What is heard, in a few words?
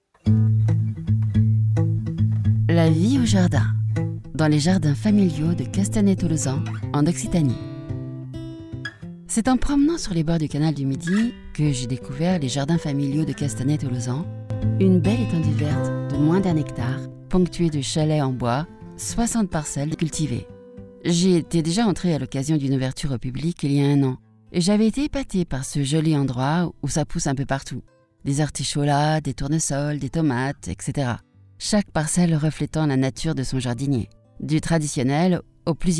french podcasts, french voice actors; locutora francesa